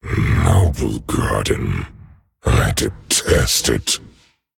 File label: Male Dragon, HAPPY NOBLEGARDEN; Noblegarden... I detest it.; 风暴巨龙迦拉克隆（英雄）